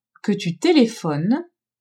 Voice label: "que tu telephones", french; telephoner

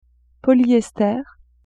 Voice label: "polyester", French; vetements

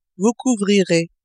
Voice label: "vous couvrirez", French

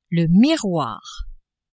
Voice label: "miroir", french; meubles

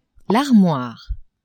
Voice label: "armoire", French; maison

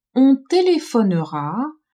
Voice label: "on telephonera", french

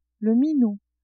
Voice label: "minou", French

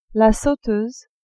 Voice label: "sauteuse", French; cuisine

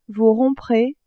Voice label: "vous romprez", French

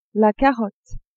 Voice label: "carotte", French; legumes

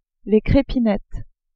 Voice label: "crepinettes", French; viande

